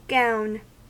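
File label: "gown", US English; pronunciations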